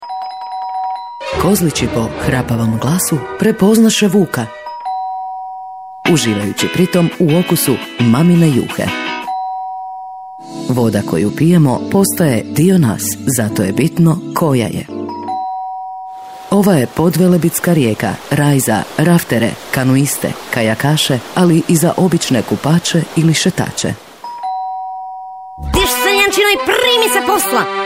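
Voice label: croatian female commercial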